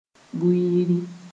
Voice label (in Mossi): "buiiri", moore